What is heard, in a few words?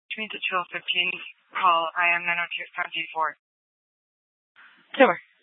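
police dispatch, Scottsdale PD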